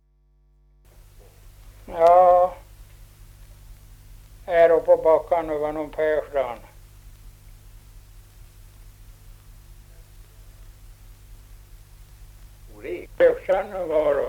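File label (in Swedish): Ovansjö Gästrikland, Svenska sagor, dialekter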